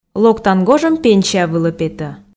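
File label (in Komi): локтан гожӧм пенсия вылӧ пета, Komi, female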